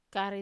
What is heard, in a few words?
"kare", Wamesa